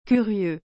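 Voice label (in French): "curieux", French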